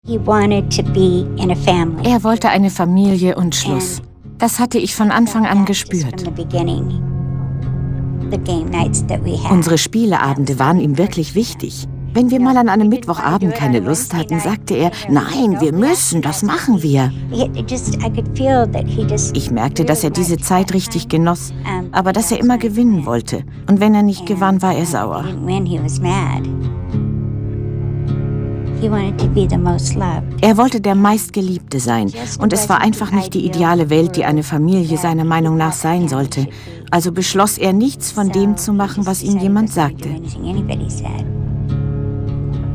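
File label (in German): Kommentar Sanft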